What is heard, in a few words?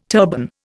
"turban", Indian